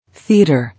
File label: "Theater", English